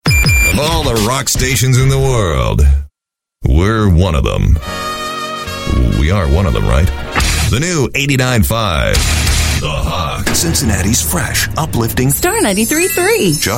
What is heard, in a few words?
US English Radio